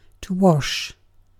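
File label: "wash", British English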